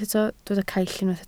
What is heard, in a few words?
"caill", Welsh